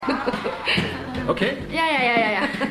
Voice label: Mann; Die Nanny

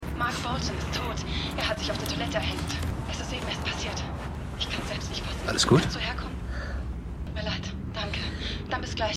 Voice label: Anruferin, Stimmproben; Liaison

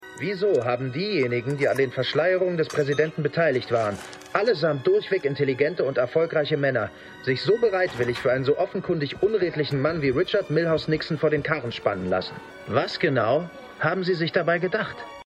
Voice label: Nachrichtensprecher; Gaslit

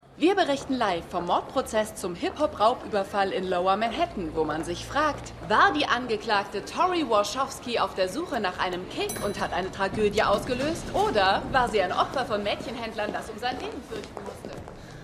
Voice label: Reporterin, Stimmproben; LO SVU

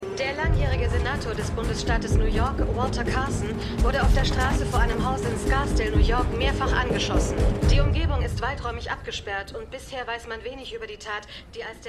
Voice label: Nachrichtensprecherin; For The People

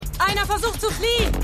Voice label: Polizistin; Black Lightning

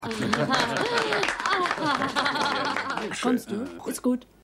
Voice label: Aeltere Frau, Frau; Maennerwirtschaft

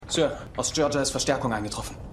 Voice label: Rebellensoldat, Stimmproben; Revolution

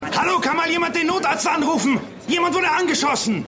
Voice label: Mann, Stimmproben

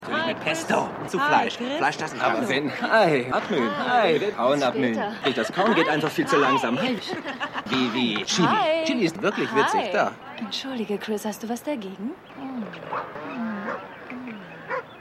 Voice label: Div Frauen; AusgerechnetAlaska

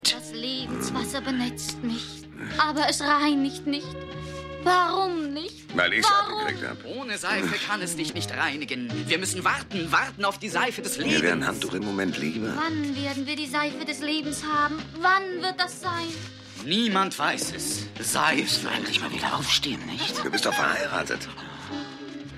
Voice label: Frau Mann Auf Buehne; Maennerwirtschaft

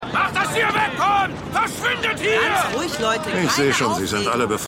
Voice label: Wuetender Mann Polizistin, Stimmproben

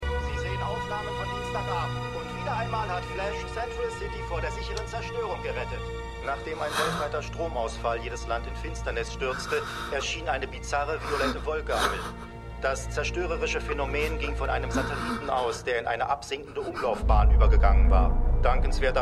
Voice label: Nachrichtensprecher; The Flash

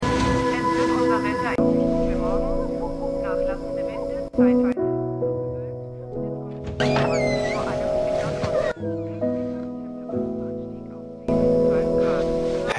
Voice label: TV Sprecherin; Schmigadoon

